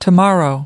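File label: "tomorrow", English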